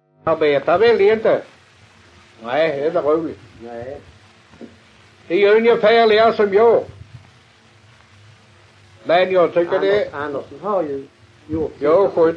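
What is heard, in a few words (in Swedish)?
Allerum Skåne, dialekter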